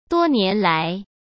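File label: "多年来", Mandarin Chinese; chinese flashcards; hsk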